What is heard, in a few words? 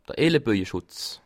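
"elleboje", Alsatian, Bas Rhin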